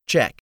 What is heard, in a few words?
"check", english; 3000 words